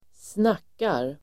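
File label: "snackar", Swedish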